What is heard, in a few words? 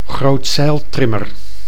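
"grootzeiltrimmer", Dutch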